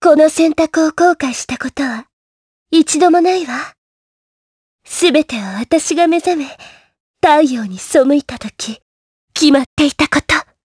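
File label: vox dialogue, voices, Japanese; Erze; kingsraid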